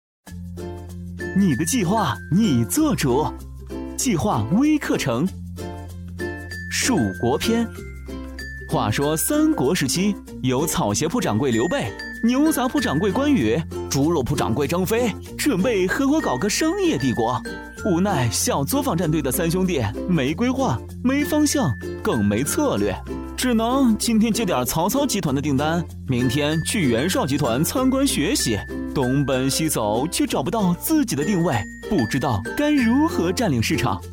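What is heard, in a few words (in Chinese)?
男国大音-轻松幽默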